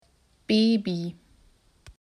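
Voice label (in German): "Baby", German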